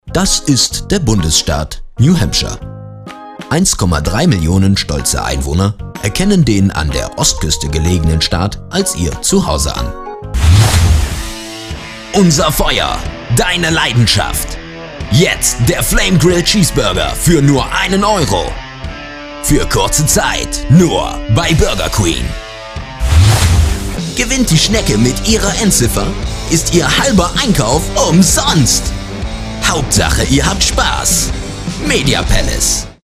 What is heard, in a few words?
commercials de